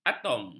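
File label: "atom", Malay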